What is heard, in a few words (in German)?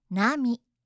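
"nami", japanische Mädchennamen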